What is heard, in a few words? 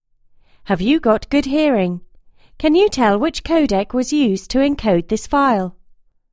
CODEC 2